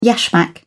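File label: "yashmak", British English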